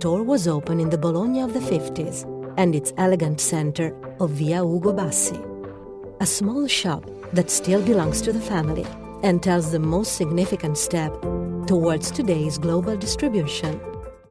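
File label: Italian accented English; GoLocalise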